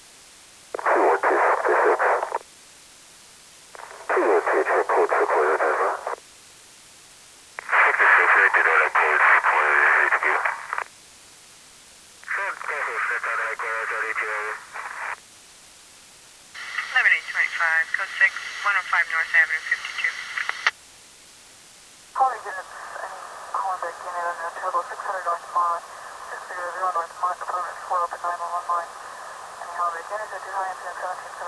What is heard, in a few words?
police chatter